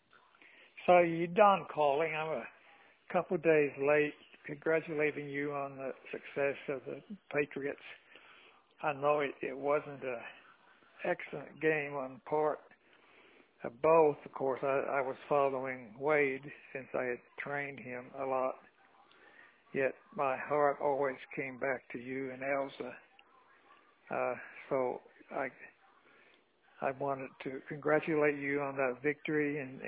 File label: voicemail